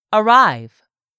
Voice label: "arrive", English word; 3000 words

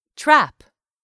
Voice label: "trap", English; 3000 words

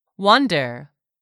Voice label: "wander", English; 3000 words

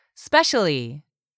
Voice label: "specially", english; 3000 words

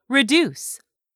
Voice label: "reduce", english; 3000 words

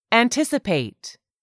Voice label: "ANTICIPATE", english; 3000 words

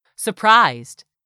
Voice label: "surprised", English; 3000 words

